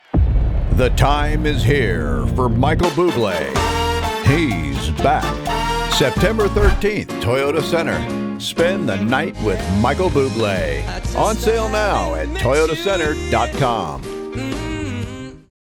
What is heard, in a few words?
VO AD